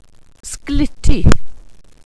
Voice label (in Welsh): "sgliti", Welsh; Wales/welsh names